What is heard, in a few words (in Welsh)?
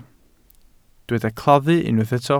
"claddu", Welsh